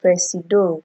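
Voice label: "presido", Nigerian Pidgin, female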